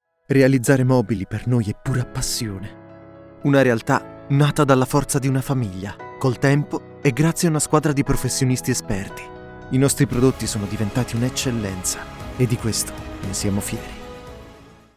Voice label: italian corporate videos